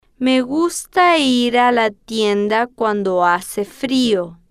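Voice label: me Gusta Ir A La Tienda Cuando Hace Frio, Spanish, female, slow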